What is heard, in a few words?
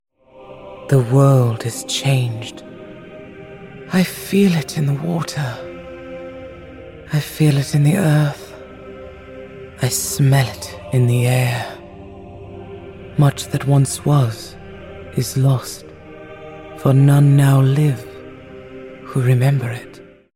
epic soft wistful fantasy powerful; galadriel lord of the rings; cate blanchett